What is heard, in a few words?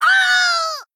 Female Goblin Death